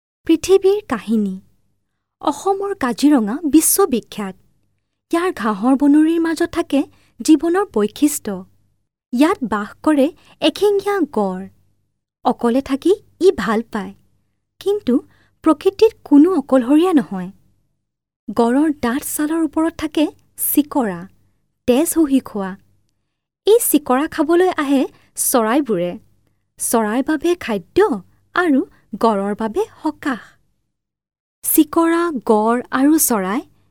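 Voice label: Assamese, General; GoLocalise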